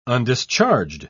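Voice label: "undischarged", British English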